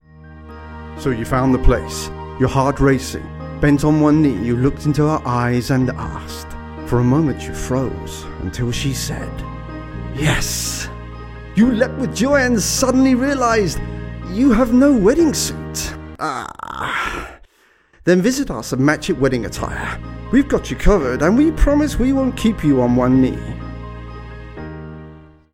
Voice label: Commercial Wedding Suits Advert VO and FX, Engaging Cheeky Promo Wedding Suit